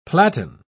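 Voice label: "platan", British English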